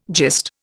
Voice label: "gist", Indian

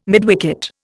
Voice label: "midwicket", Indian

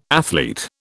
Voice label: "athlete", British

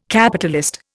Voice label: "Capitalist", Indian

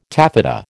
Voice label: "taffeta", American

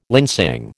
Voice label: "linsang", American

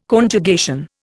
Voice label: "Conjugation", Indian

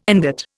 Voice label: "ingot", Indian

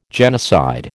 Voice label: "genocide", American

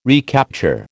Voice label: "recapture", American

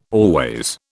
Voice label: "always", British

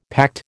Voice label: "pact", American

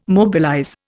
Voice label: "mobilize", Indian

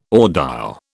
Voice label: "audile", British

